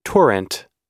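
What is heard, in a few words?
"torrent", US English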